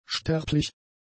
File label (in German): "sterblich", German; adjectives